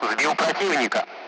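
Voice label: Speech, Russian; Allied Flight; splash bandit; DCS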